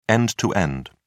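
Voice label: "end-to-end", British English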